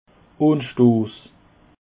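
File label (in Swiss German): "anstoss", Alsatian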